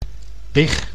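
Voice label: "big", Dutch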